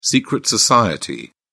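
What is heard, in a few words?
"secret society", British English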